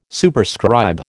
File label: "Superscribe", American